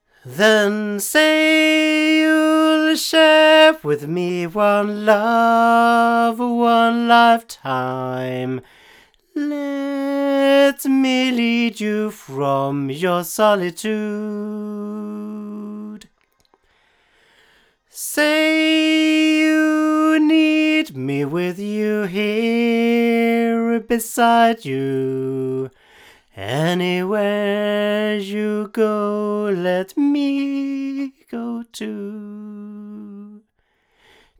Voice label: AudioTechnica AT2035 male